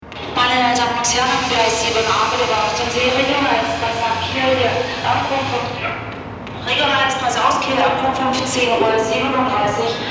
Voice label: Bahnhof Durchsage

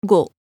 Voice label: zaehlen japanisch

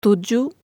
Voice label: zaehlen Indonesisch

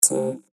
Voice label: zahlen englisch vocoder 2

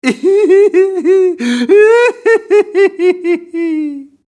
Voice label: vox mann Schadenfreude

